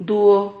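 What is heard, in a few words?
"duo", Spanish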